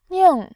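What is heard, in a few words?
"ning", Mandarin, tone 4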